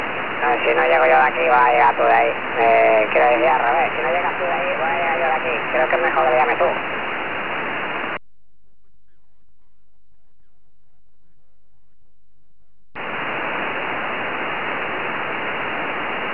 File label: qso 4m